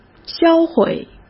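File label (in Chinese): "销毁", Mandarin Chinese; hsk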